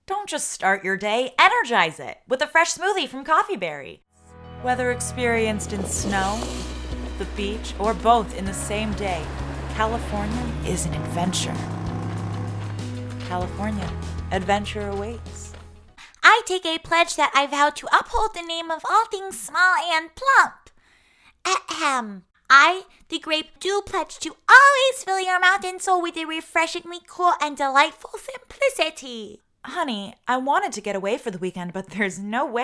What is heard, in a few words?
VO Female